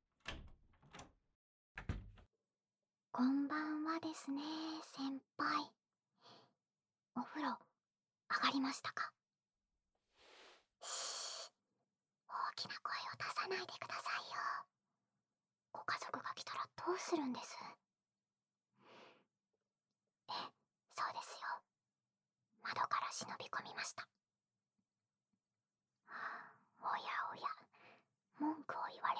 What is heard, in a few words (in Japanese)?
添い寝 左右反転, ASMR